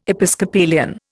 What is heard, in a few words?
"Episcopalian", Indian